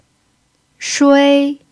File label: "shui1", pinyin